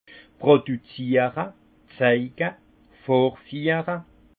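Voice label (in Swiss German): "produziere", alsace Haut-Rhin; vin; produire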